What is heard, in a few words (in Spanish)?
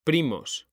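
"PRIMOS", Spanish; mekanta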